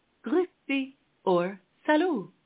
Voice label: hello, German (Switzerland)

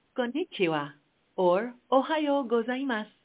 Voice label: Japanese, hello

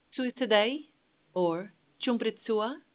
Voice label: Khmer, hello

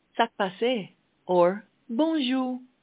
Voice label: Creole, hello

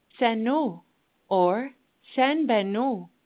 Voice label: Mongolian, hello

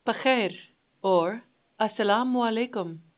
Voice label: Pashto, hello

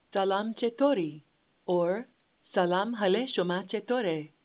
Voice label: Persian Farsi, hello

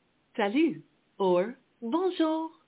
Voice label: French, hello

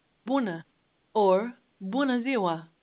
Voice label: Romanian, hello

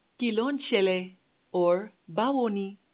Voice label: hello, Yoruba

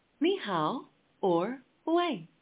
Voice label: hello, Mandarin

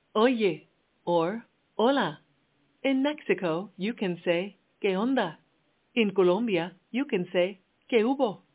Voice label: hello, Spanish

